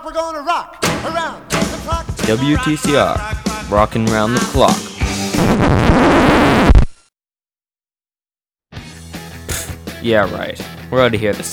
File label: radio id long sample